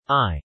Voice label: I male